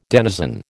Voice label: "denizen", American